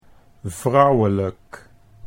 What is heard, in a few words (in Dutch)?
"vrouwelijk", Dutch